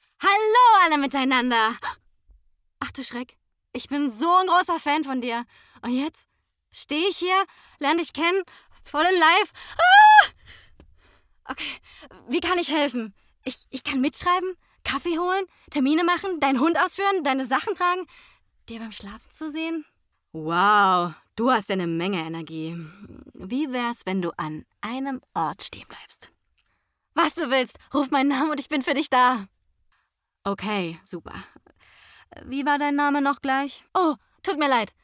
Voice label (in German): synchron, Sprecherin; alles steht kopf